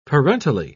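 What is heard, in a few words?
"parentally", British English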